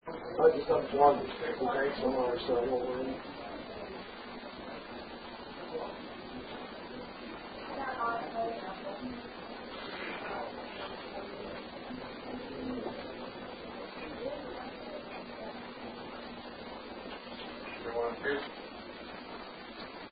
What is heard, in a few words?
Home Attic WHISPER Inaudible; Case 021 Zepp